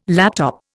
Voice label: "laptop", Indian